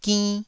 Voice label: "ginn1", taiwanese

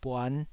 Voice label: "buan5", taiwanese syllables

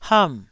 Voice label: "ham4", Taiwanese syllable

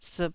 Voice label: "siip2", Taiwanese syllable

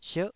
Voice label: "sierh9", taiwanese Syllables

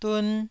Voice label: "dun1", Taiwanese

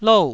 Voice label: "lou4", Taiwanese

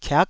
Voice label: "kiak9", taiwanese syllables

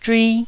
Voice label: "zhui1", Taiwanese syllable

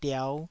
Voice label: "diau5", Taiwanese syllable

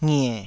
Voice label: "ngie3", taiwanese syllables

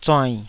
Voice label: "zuainn3", taiwanese

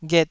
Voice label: "ghet2", Taiwanese syllable

